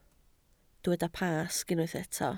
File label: "Pasg", Welsh